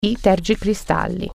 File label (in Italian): "wipers", Italian